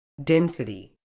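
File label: "density", British English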